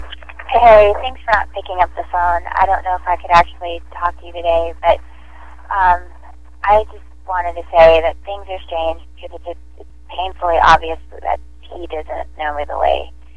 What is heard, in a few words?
voicemail